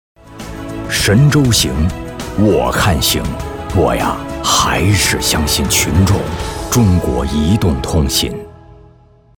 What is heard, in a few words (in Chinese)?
男 角色模仿; 葛优-中国移动